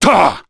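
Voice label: Vox Landing, Japanese; kingsraid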